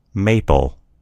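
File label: "maple", American English; gcompris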